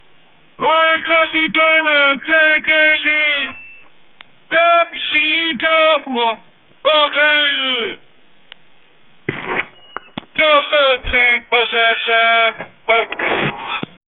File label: man yelling stupid radio, banging